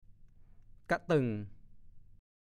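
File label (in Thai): "กะเติ่ง" ภาษาโคราช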